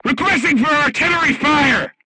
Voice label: voice message art command, crew tanks portuguese